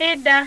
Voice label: igbo, vowels

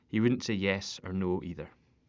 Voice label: scottish

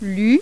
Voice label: french

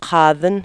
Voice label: aleut

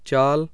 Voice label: hindi, vowels

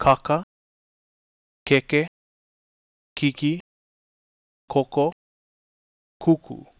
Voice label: Hawaiian vowels

